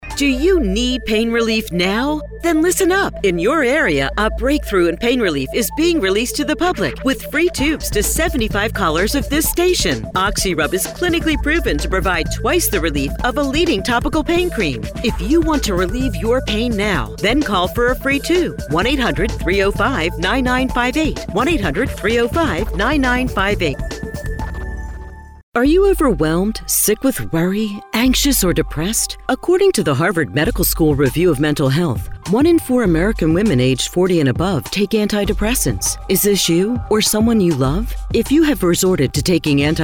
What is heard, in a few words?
infomercial